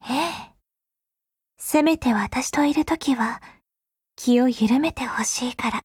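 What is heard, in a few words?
Japanese; Groza; Dreamscape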